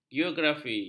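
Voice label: "geografi", Malay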